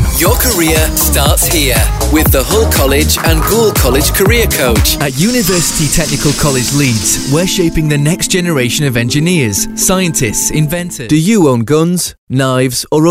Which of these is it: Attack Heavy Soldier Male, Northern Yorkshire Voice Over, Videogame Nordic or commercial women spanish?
Northern Yorkshire Voice Over